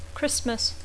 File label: "Christmas", English